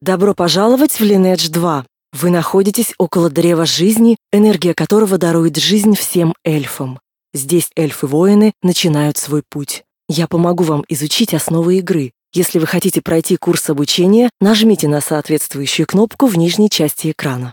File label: tutorial voice, ru; lineage2